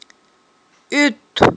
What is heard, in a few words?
"Iутт", aghul